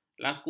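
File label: "laku", Malay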